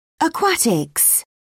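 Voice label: "aquatics", British English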